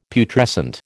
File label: "Putrescent", American